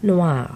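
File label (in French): "noires" French adjective, feminine plural; Colors and Adjectives; noirs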